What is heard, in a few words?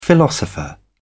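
"philosopher", British English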